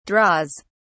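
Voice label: "draws", British English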